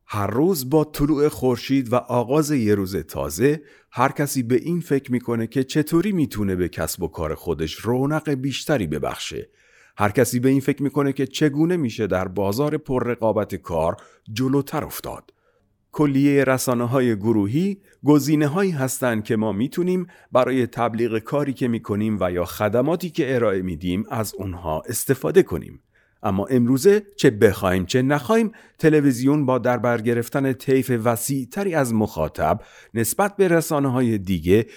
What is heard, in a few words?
Farsi Motion Graphy